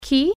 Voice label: who, italian